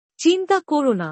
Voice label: "don't worry", bangla; Basic Greetings and Courtesy

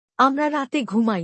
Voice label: We go to sleep at night. Bangla; Time and Routine

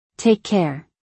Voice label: "Take care", English; Basic Greetings and Courtesy

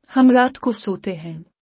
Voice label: We go to sleep at night.-Urdu; Time and Routine

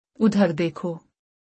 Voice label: "Look over there", Urdu; Basic Greetings and Courtesy

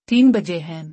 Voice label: It's 3 o'clock urdu; Expressing Time and Day

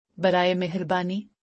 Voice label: "Please", Urdu; Basic Greetings and Courtesy

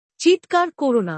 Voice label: "Don't shout", Bangla; Basic Greetings and Courtesy

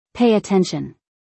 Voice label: Pay attention English; Time and Routine

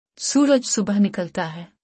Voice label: The sun rises in the morning, urdu; Time and Routine

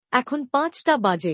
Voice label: It is 5 o’clock. Bangla; Time and Routine

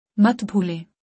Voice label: Don’t forget. Urdu; Time and Routine

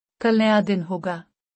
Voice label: Tomorrow is a new day. Urdu; Time and Routine

